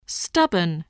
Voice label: "stubborn", spelling